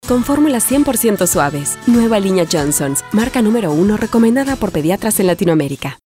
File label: Motherly Calm Comforting; Jonsons Baby